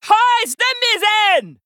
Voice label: Female Troll; Story Hero Hooktusk; BOM Tamsin Mission2 Exchange